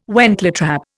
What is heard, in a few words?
"wentletrap", Indian